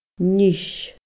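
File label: "Nis", Scottish Gaelic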